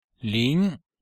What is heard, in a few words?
"líng", Chinese